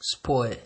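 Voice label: "spoil", Nigerian Pidgin, male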